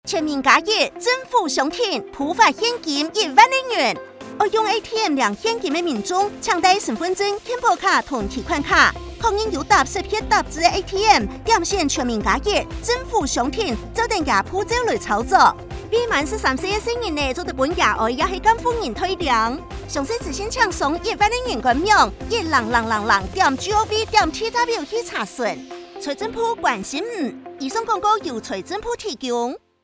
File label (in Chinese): 客語版廣播; 全民+1 政府相挺ATM領現篇廣播-客語版; 政策細節篇